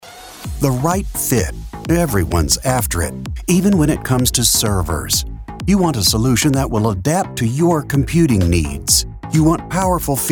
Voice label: Corporate Business